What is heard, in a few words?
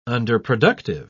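"underproductive", English, British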